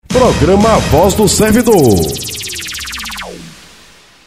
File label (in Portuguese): VINHETA PROGRAMA A VOZ DO SERVIDOR